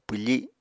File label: "pwfi7", Apatani